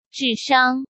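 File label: "智商", Mandarin Chinese; chinese flashcards; hsk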